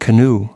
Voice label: "canoe", us